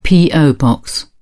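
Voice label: "po box", British English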